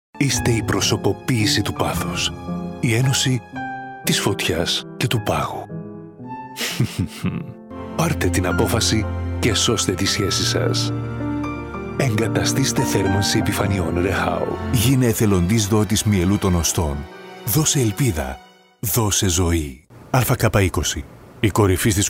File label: greek commercial